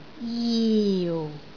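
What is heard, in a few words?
"jiu4", Cantonese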